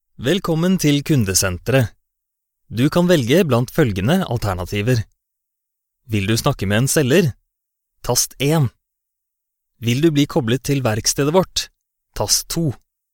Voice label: norwegian ivr